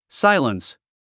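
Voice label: "silence", English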